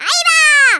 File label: incoming, Hispanic; Worms Armageddon